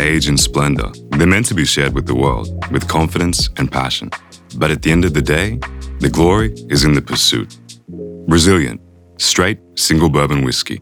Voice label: voice over